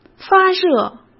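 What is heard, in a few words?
"发热", Mandarin Chinese; chinese-flashcards; hsk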